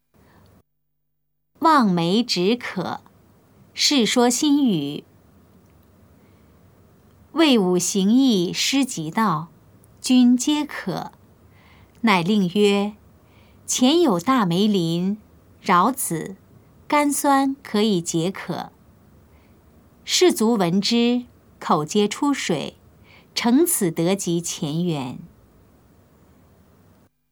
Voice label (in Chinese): putonghua; 望梅止渴; 普通話